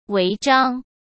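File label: "违章", Mandarin Chinese; hsk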